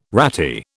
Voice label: "ratty", British

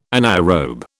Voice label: "anaerobe", British

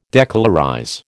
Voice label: "decolorize", American

